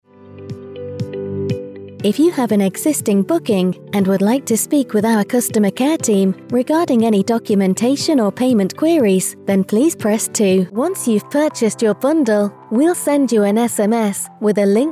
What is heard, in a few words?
IVR and On Hold